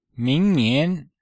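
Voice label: "ming2 nian2", Chinese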